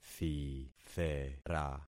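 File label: "thithera", concatenated syllables; Affixing experiment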